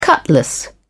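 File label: "cutlass", British English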